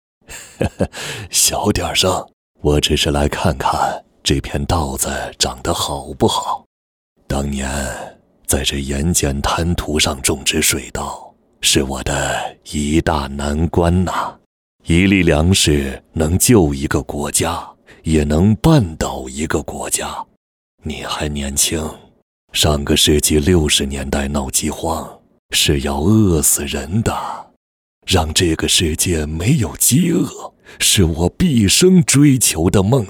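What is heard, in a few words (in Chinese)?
角色 男 老年 亲切; 袁爷爷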